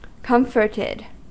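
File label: "comforted", US English; pronunciations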